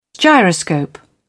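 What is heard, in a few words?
"gyroscope", British English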